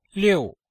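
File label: "liu4", Chinese; Cours de Chinois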